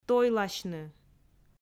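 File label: "тойласьны", Komi, female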